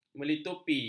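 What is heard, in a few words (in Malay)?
"melitupi", Malay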